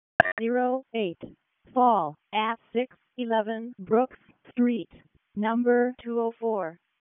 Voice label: EMS ALERT, p25

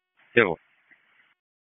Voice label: FIRE OPS, p25; wake

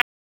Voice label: Raleigh Police Northeast District Tac, p25; wake

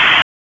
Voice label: Police North District Tac 1, p25; Raleigh; wake

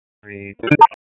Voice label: Police North District Tac 1, p25; Raleigh; wake

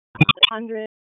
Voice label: RFD ALERT, P25 recordings; Wake

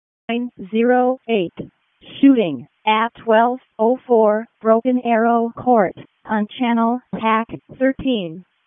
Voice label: EMS ALERT

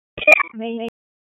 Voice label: WC EMS ALERT, p25; wake

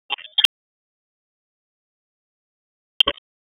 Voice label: Police Northeast District Tac 1, p25; Raleigh; Wake

